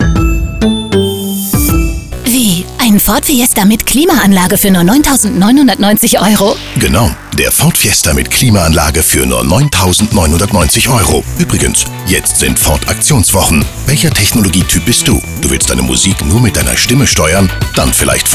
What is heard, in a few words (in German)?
Jugendlich werblich; Ford Mix Technologie Bonus